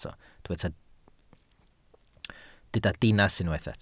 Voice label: "dinas", welsh